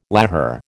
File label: "lahar", American